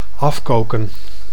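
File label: "afkoken", Dutch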